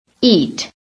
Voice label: "eat", American

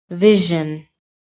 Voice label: "VISION", american

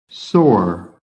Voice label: "sore", american

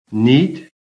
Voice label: "neat", American

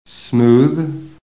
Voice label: "SMOOTH", american; PHONETIC REFERENCE

